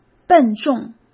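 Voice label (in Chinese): "笨重", Mandarin Chinese; hsk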